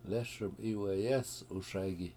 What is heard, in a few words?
"lES1m7iweyEEsuSay7gi", washo; Im Always Singing